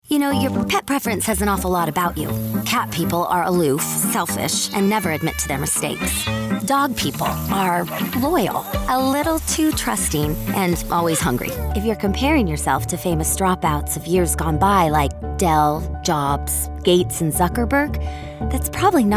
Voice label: Commercial; voice demo production samples